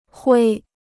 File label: "灰", Chinese